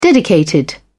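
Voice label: "dedicated", British English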